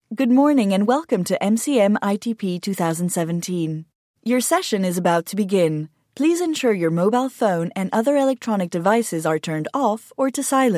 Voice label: English announcements